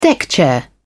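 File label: "deckchair", British English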